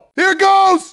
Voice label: take, grunt; nimble grunt